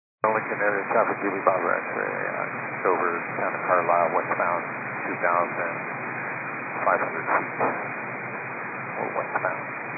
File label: 122 925MHz, scanner radio